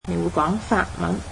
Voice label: "you speak French", Cantonese